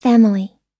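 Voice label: "family", English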